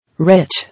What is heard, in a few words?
"Rich", English